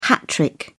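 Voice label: "hat trick", British English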